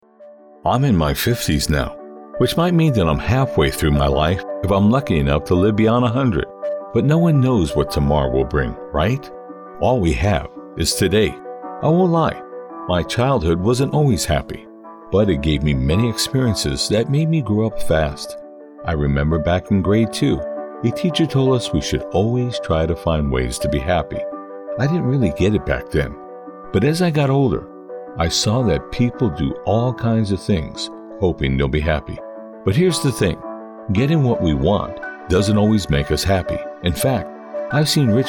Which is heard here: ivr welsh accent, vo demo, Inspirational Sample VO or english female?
Inspirational Sample VO